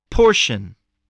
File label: "portion", English